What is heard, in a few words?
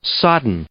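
"sodden", English; adjective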